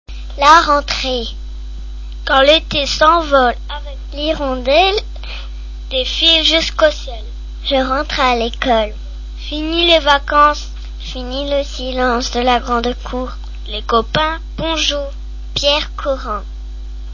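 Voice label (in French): rentree, Poésies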